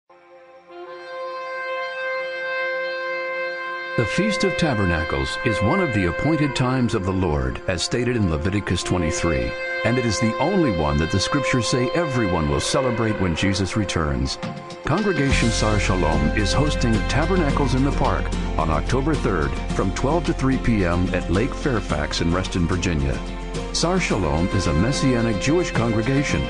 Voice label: Advertisement; KSS Sukkot